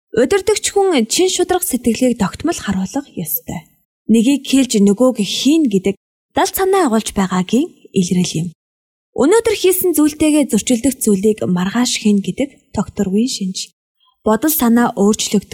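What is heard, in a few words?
Mongolian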